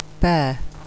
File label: "bear", English phonetics